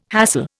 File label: "hassle", Indian